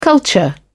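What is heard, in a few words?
"culture", British English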